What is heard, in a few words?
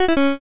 pico angry